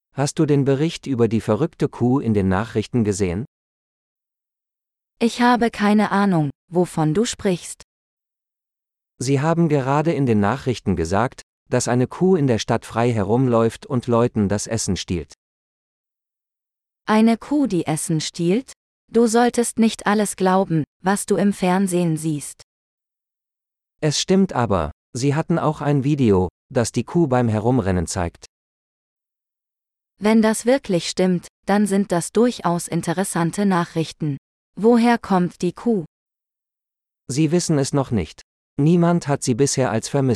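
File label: Current News - Dialogue, German; de-en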